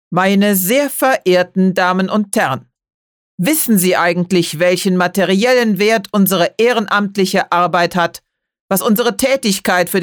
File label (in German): Stimmprobe energisch